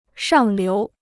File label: "上流", Chinese